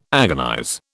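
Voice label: "agonize", British English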